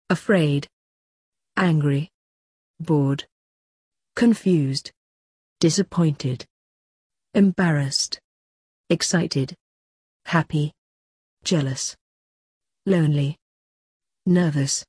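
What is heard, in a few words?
British; emotions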